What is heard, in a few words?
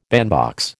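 "bandbox", American English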